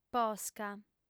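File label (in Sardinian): "posca", female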